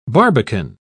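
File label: "barbican", British English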